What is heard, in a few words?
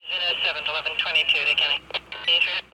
lock it police radio; narcos